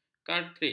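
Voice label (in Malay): "kartrij", Malay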